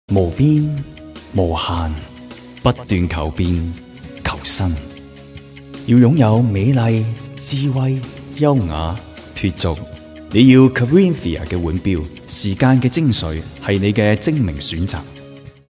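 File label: Cantonese TV commercial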